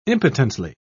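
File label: "impotently", British English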